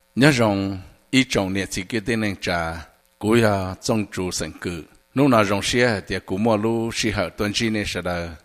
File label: Hmong